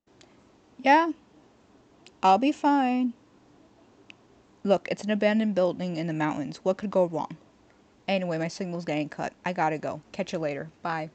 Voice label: Phone Call